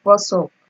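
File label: "wosup", Nigerian Pidgin, female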